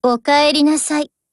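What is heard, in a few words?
HELLO, Japanese; GF Alarm; MAC10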